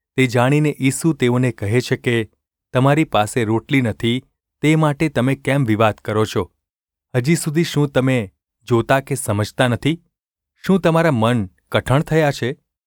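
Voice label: gu ulb mrk, Gujarati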